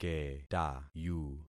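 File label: "ketayu", concatenated syllables; Affixing experiment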